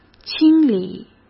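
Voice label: "清理", Mandarin Chinese; chinese flashcards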